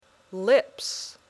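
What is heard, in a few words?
"lips", English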